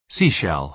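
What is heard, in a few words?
"seashell", American English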